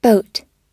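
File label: "boat", American English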